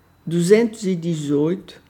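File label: Portuguese numbers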